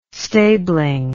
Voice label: "stabling", English